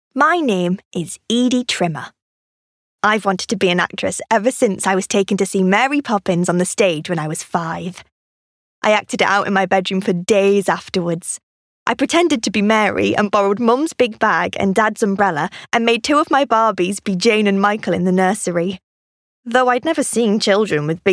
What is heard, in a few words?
Audiobooks, Female. North East.; Teens-30s.